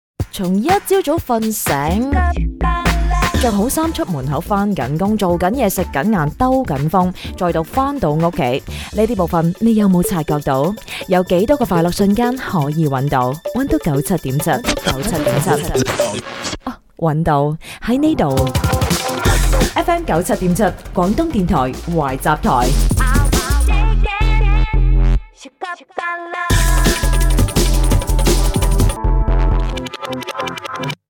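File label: Chinese female, commercial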